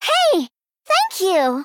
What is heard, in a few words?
Female Gnome Thanks; Good Fight